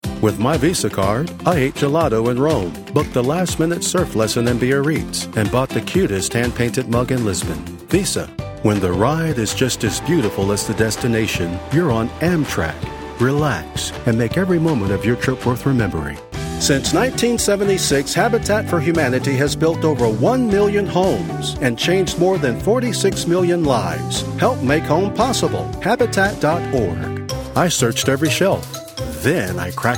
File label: Commercial